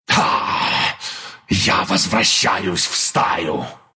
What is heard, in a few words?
respawn, ru; Lycan; Dota 2